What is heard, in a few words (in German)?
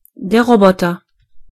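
"robot", German; gcompris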